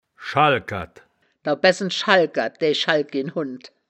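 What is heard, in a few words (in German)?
"schalkerd", wittlicher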